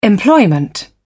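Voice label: "employment", British English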